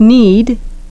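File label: "need", English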